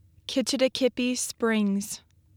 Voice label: "Kitch-iti-kipi Springs", Michigan Pronunciations